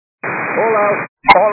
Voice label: 122 725MHz, scanner radio